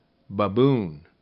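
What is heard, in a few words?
"baboon", English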